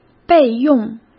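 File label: "备用" Mandarin Chinese; chinese-flashcards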